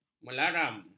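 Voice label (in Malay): "melaram", Malay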